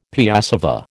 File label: "piassava", American